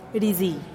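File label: "rizii", Teotitlán del Valle Zapotec; buy verb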